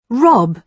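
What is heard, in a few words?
"rob", British English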